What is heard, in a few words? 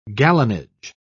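"gallonage", British English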